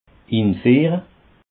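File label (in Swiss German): "infiehre", Alsatian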